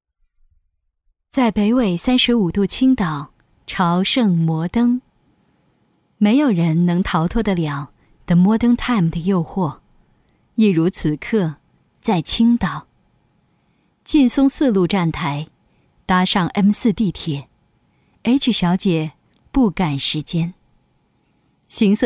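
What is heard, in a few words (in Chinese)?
女 旁白【成熟优雅】; 房产; 低沉走心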